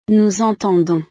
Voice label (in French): "nous entendons", frances